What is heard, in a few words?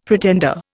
"pretender", Indian; Dictionary